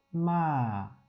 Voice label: "'ma", Wu Chinese; 鼻边音阴调变体

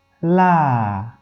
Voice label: "'la", Wu Chinese; 鼻边音阴调变体; 吴语; 就是我要介绍的变体声母。

